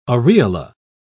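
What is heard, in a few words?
"aureola", British English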